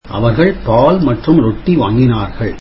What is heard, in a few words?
tamil they bought milk and bread